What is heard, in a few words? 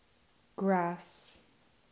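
"Grass", English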